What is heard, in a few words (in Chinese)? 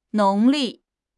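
"农历", Chinese; HSK6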